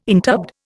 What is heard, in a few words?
"interbed", Indian